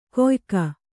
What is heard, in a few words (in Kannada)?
"ಕೊಯಕ", Kannada